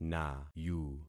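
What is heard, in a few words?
"nayu", concatenated syllables; Affixing experiment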